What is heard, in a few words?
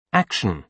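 "action", British English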